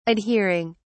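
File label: "adhering", British English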